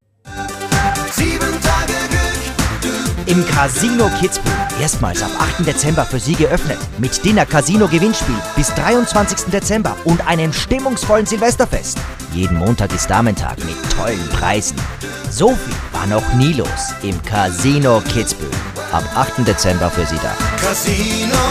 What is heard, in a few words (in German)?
Werbung Casino